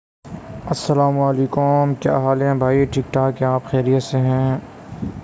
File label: voice message